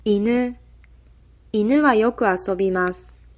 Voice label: dog, japanese